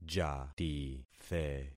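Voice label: "jatithe", concatenated syllables; Affixing experiment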